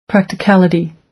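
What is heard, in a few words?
"practicality", American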